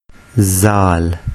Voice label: "zaal", urdu